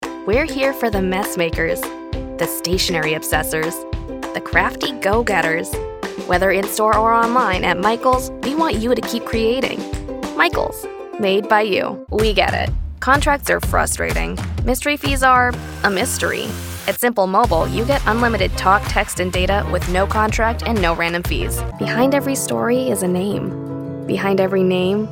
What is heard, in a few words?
english canadian commercial